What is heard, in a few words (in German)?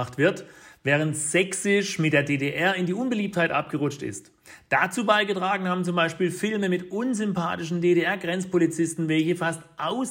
dialekt